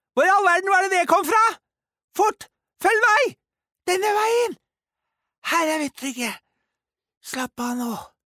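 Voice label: Norwegian dub character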